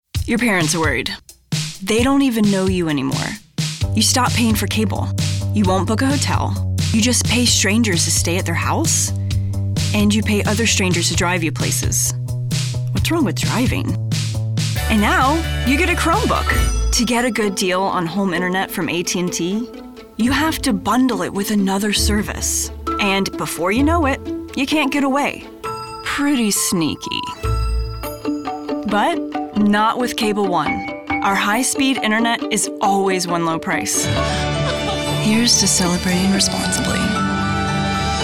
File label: commercial